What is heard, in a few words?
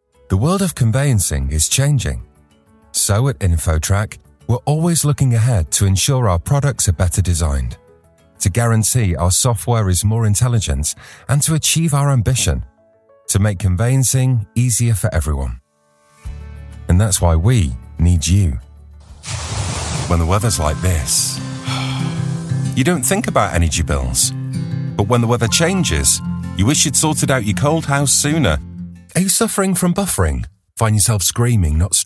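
commercial, Gravitas Warm Trustworthy Voice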